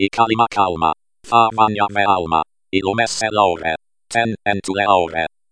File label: abc calma Espeak